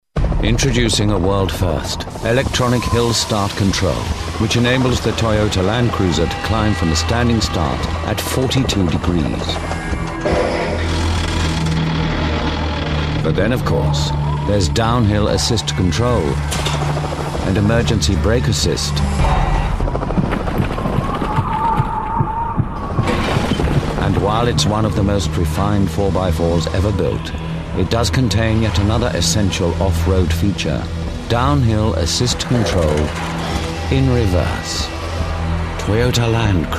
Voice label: Commercial; Toyota land cruiser; Vocal Point

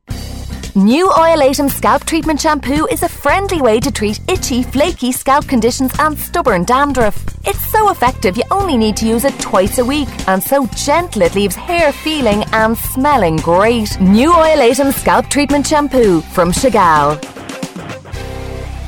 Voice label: Commercial Shampoo

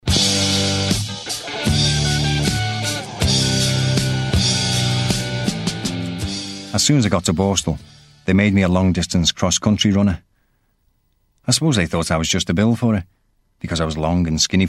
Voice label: Narration; Borstal; Vocal Point

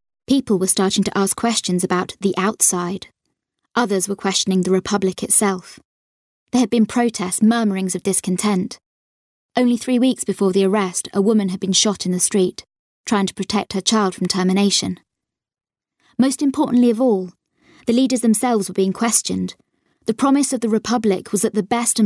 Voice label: Audiobook; Genesis; Vocal Point

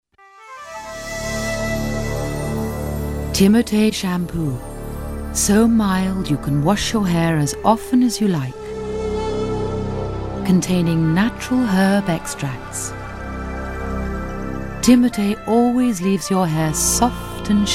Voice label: Commercial; Timotei; Vocal Point

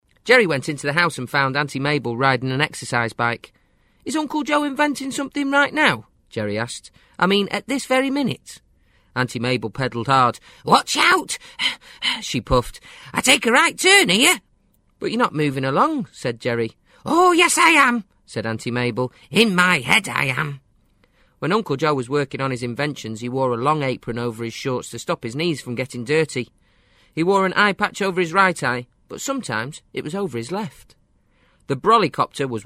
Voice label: Narration Kids story

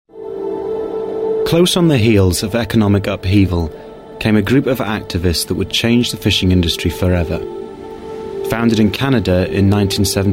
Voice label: Narration; Vocal Point

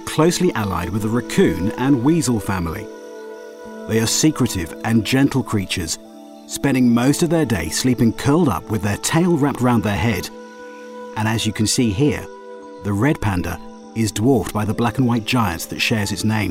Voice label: Pandas Narration

